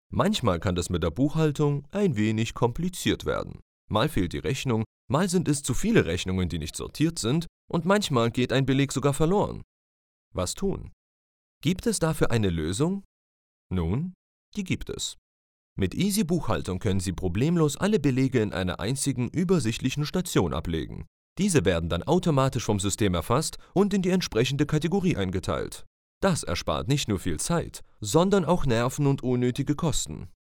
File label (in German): Erklarvideo